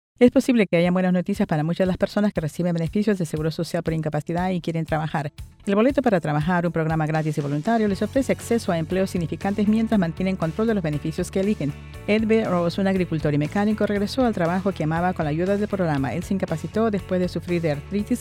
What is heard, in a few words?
spanish; news of jobs